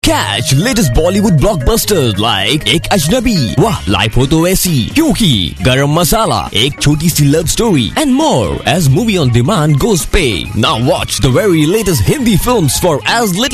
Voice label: English Movie, India Male

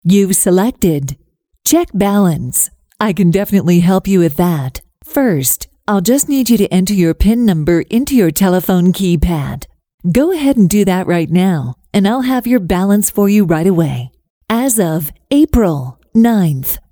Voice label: US English IVR Banking

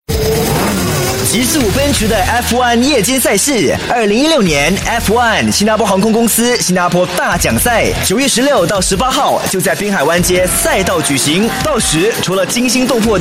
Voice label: Mandarin Male; China

